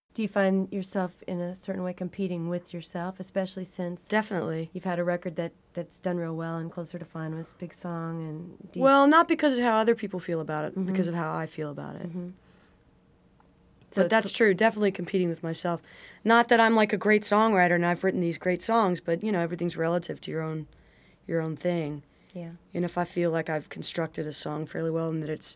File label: conversation